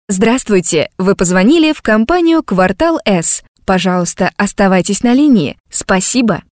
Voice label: IVR; Быстро